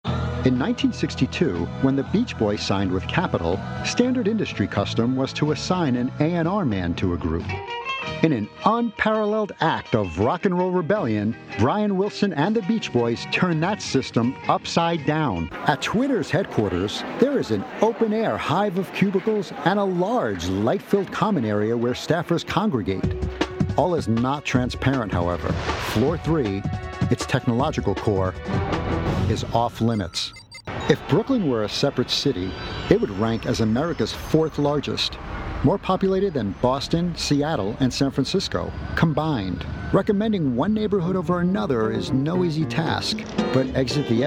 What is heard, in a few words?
Documentaries